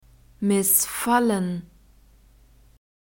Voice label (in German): "Missfallen", German